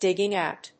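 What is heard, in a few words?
"digging-out", English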